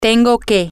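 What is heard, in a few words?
I have to, spanish, female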